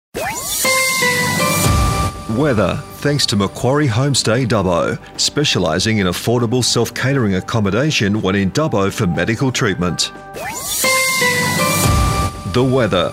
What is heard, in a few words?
Imaging Weather